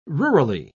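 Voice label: "rurally", British English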